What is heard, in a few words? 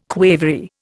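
"quavery", Indian English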